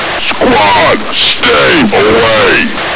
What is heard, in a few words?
"fallback", radio; csof